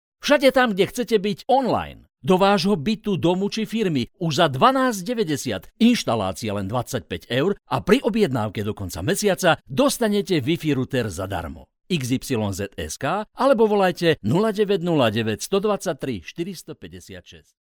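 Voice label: Slovak, male, commercial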